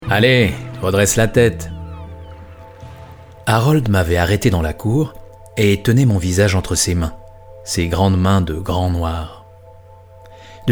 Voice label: french switzerland narration; Swiss French; voice actor

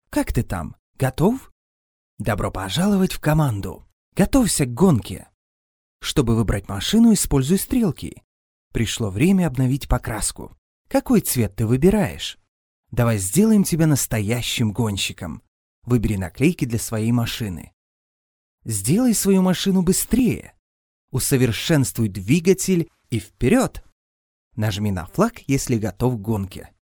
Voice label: russian video games

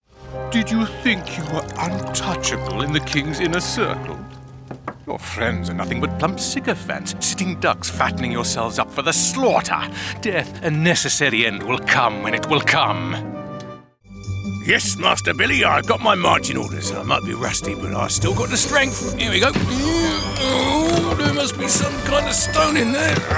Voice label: english british animation

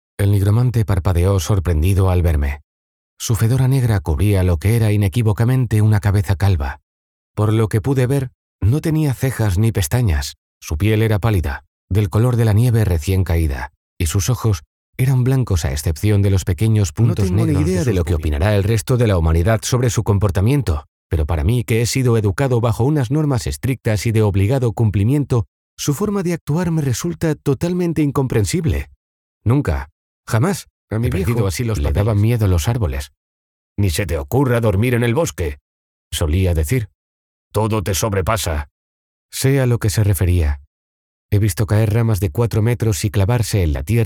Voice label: spanish audiobooks

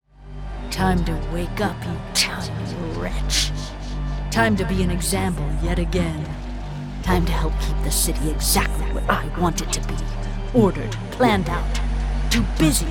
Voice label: english american animation; Synchronsprecherin; Heimstudio in Chattanooga

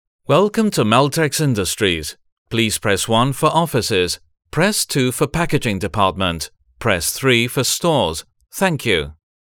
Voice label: english british ivr

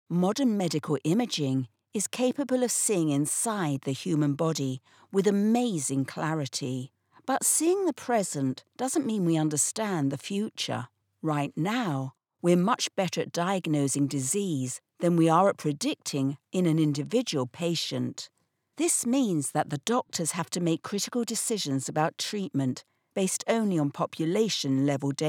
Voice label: english british narration

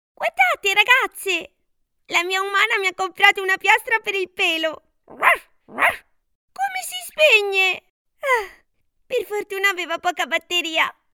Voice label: italian animation

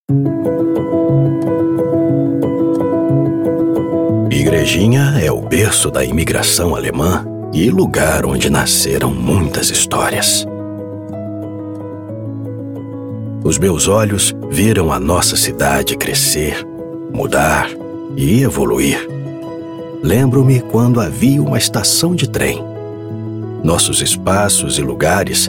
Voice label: portuguese brazilian impersonations

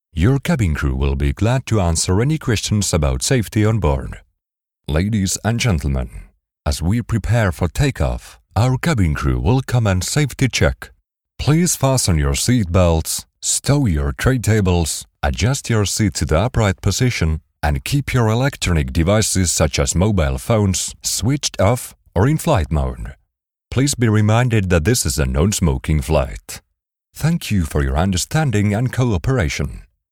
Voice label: english british commercial

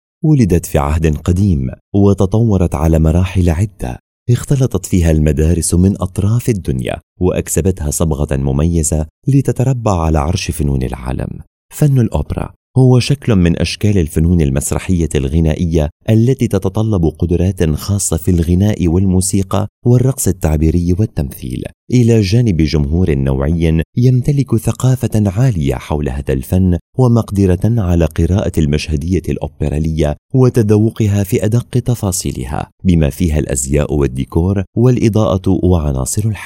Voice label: arabic documentaries

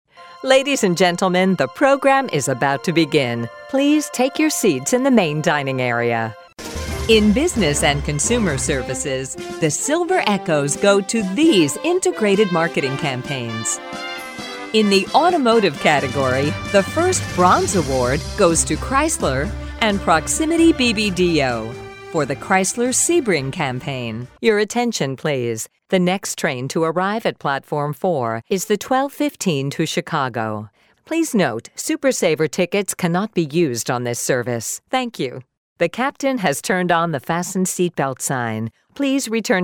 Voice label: english american announcements

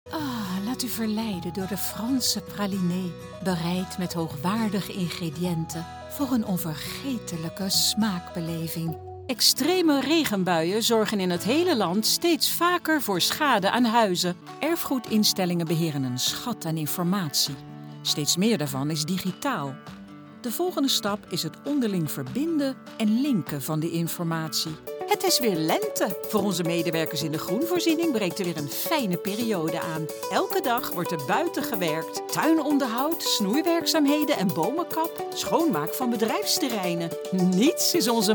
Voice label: dutch commercial

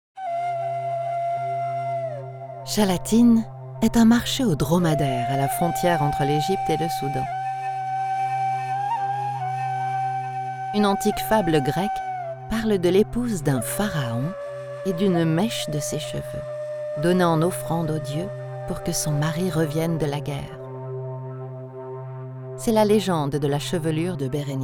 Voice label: french audiobooks

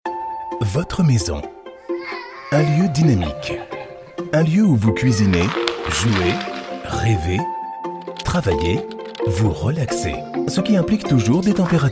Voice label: french narration

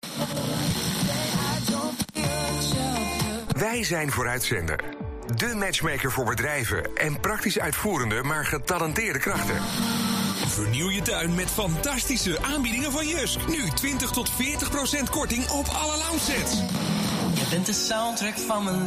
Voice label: dutch commercial

